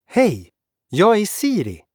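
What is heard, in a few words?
Swedish, Sweden; iOS 26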